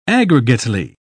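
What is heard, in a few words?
"aggregately", British English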